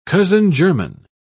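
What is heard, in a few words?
"cousin-german", British English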